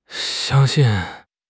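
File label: "xiang1 xin4", Mandarin Chinese; HSK3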